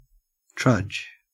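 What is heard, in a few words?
"trudge", Australian English; pronunciations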